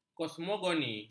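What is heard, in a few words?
"kosmogoni", Malay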